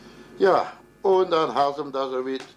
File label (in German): winnekendonk kontraktion, dialekt; sprache